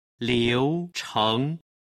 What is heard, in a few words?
"liucheng", Chinese